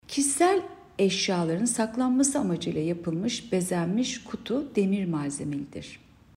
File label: turkce